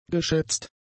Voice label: "geschätzt", German; adjectives

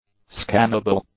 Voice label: "scannable", English; adjectives

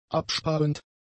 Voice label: "absparend", German; adjectives

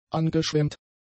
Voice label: "angeschwemmt", German; adjectives

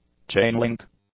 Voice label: "chainlink", English; adjectives

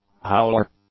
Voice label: "howler", English

